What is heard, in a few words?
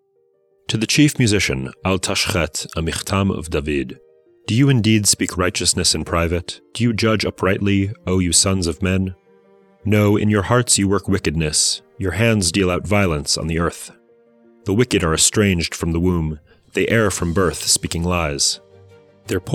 poetry and prayer psalm english